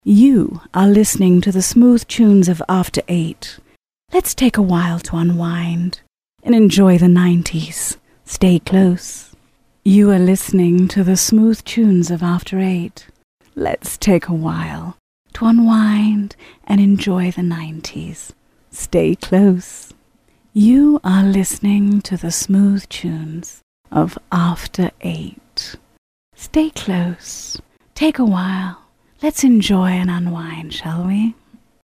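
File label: English female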